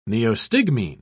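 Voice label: "neostigmine", British English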